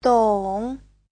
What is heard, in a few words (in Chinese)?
"dong3", Chinese; 懂; (động từ) hiểu, biết